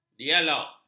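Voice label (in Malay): "dialog", Malay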